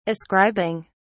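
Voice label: "ascribing", British English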